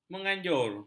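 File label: "menganjur", Malay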